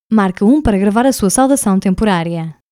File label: Record Temporary Greeting, portuguese